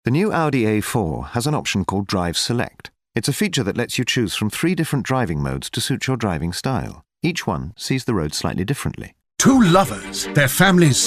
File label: commercial reel